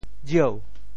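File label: "jieu2", Teochew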